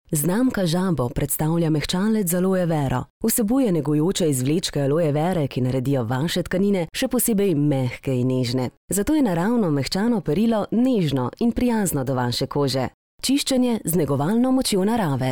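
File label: slovenian female narration; voice demos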